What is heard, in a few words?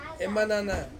"manana", to steal, Ansus